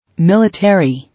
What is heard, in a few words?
"Military", English